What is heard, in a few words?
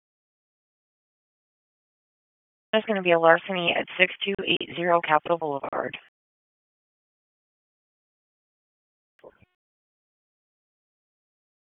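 Police Northeast District Dispatch; Raleigh; p25; wake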